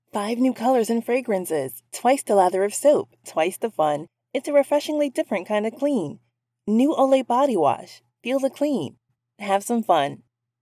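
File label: COMMERCIAL; Voice over; OLAY BODY WASH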